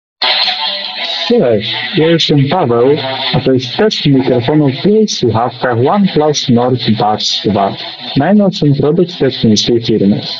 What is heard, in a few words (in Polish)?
oneplus nord buds 2 test mikrofonu